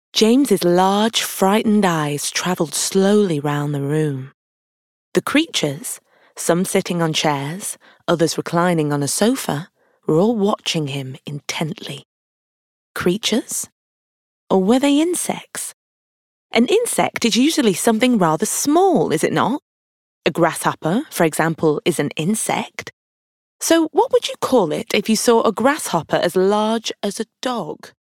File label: Audiobook